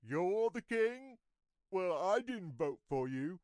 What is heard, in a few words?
peasant angry; warcraft